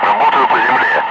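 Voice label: Russian speech, Ka-50 Wingman; Messages engaging movers; DCS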